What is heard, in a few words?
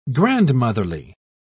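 "grandmotherly", English, British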